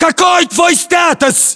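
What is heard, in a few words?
status check, battlechatter, Russian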